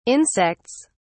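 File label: "insects", British English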